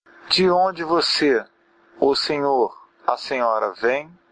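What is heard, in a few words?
where from, Brazilian Portuguese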